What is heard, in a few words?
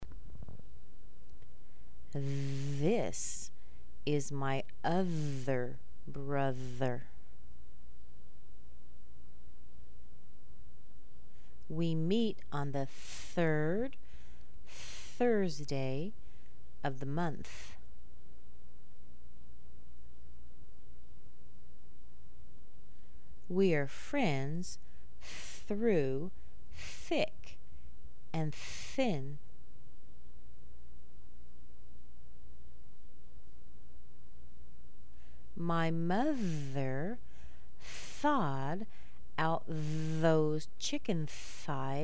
TH sentences slow, American accent